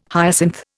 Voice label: "hyacinth", Indian